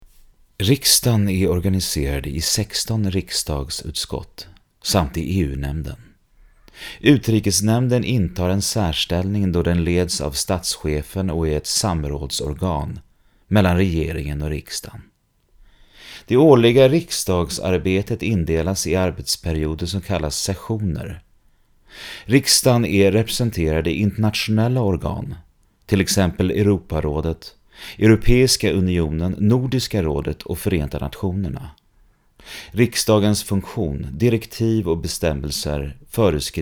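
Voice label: Swedish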